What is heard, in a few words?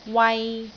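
"wai3", Cantonese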